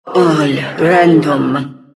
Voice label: announcer all random; Diretide 2012